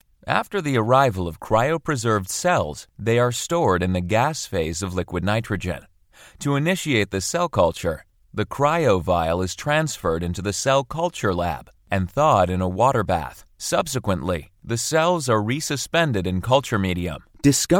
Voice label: eLearning Corporate Training